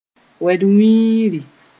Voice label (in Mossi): "wed-wĩiri", moore